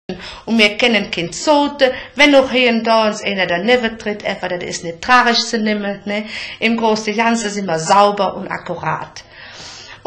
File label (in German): Koelsch, Ripuarisch; Mitteldeutsch